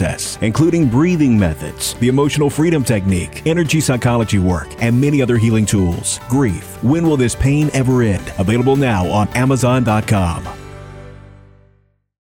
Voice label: Counseling Book Commerical